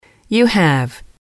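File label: you have, female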